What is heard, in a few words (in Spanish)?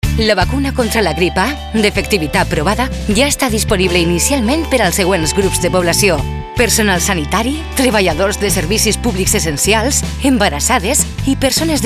VALENCIANO